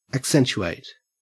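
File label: "accentuate", Australian English; pronunciation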